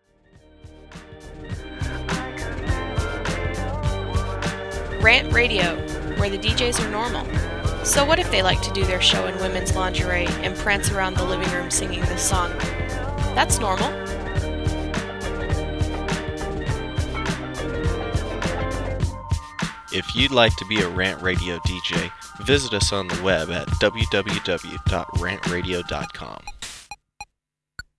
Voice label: Promo; RantRadio; RantMedia; Station Promos-Listener Submitted